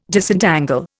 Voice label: "Disentangle", Indian

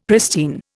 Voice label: "pristine", Indian; Dictionary

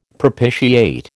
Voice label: "propitiate", American

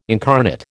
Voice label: "incarnate", American

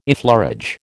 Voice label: "effleurage", American

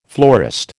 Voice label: "florist", American

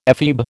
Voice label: "ephebe", American

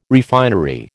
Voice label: "refinery", American

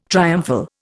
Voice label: "triumphal", Indian

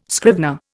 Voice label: "scrivener", Indian

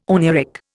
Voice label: "oneiric", Indian

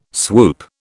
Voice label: "swoop", British

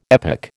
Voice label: "epoch", American

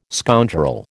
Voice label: "scoundrel", American

